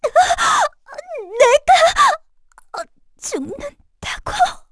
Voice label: Vox Dead kr; heroes; Erze; kingsraid